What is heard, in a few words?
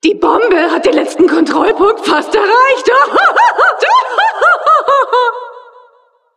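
Announcer cart attacker final warning, german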